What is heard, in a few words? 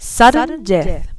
sudden death, announcer